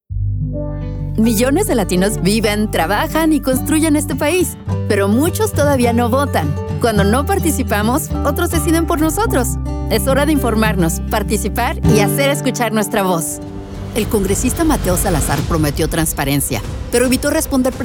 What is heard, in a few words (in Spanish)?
Political SPN; Hispanic Political Voiceover